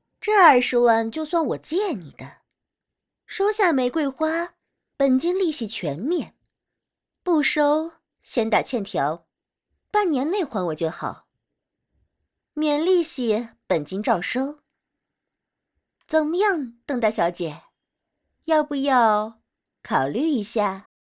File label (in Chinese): 成熟